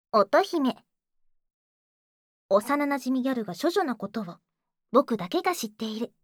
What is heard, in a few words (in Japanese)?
タイトルコール